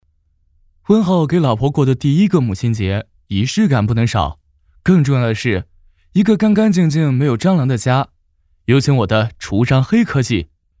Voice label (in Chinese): 素人 信息流; 婚后